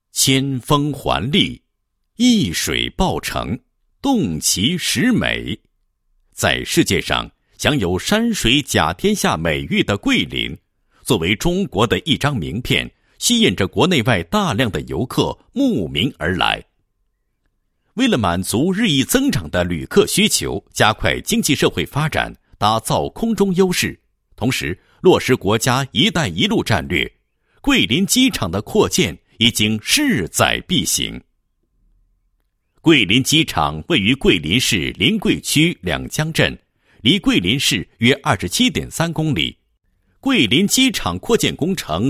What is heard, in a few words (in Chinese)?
男 项目介绍 配音; 桂林机场工程概况